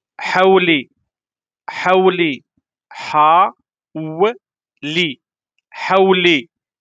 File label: how to say sheep in darija morocco